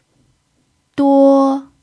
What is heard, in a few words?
"duo1", chinese